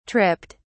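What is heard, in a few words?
"tripped", British English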